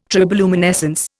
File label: "triboluminescence", Indian